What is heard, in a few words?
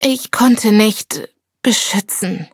defeat, de